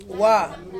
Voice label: "wa" no, Ansus